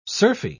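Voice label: "surfy", British English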